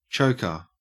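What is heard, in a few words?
"choker", English, Australian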